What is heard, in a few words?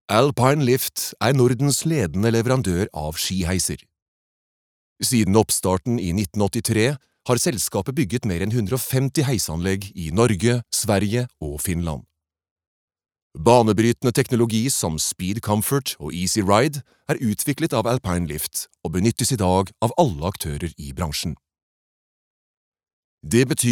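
Norwegian corporate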